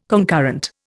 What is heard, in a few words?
"concurrent", Indian